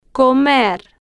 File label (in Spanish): "co-mer", Spanish